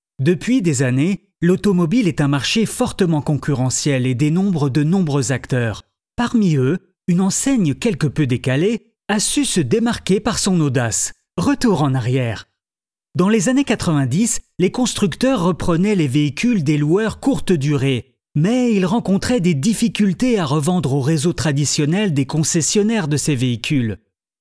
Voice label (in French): FR corporate journalistique sympa narration voix sèche